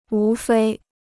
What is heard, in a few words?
"无非", Chinese